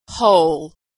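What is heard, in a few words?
"hole", American English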